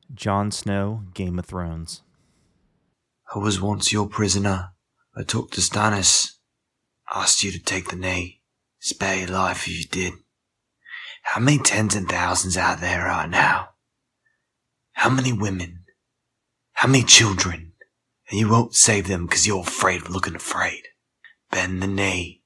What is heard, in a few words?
Voice Over Impressions; John Snow; Game Of Thrones; Zack Fair